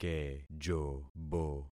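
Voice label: "kejobo", concatenated syllables; Affixing experiment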